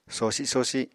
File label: "sosi sosi", Apatani